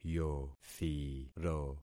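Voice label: "yothiro", concatenated syllables; Affixing experiment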